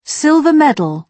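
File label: "silver medal", British English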